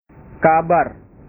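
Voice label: "kabar", Indonesian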